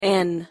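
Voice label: "n" pronunciation English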